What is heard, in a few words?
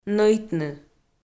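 "нӧйтны", Komi, female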